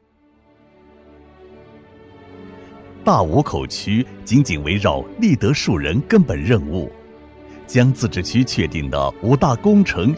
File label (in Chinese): 男 城市宣传 厚重大气; 硬朗力度; 成熟厚重; 大武口区